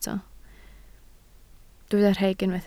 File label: "rheg", Welsh